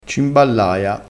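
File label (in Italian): "cimballaia", dialettale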